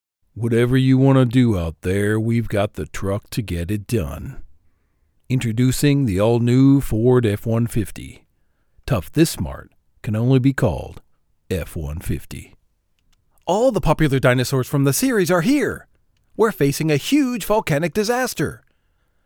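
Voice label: US English, male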